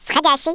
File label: incoming, Speech, Russian